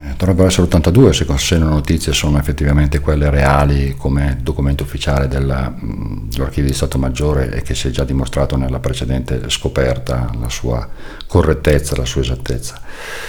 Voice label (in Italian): intervista breve; cevo con le sue 94 stelle alpine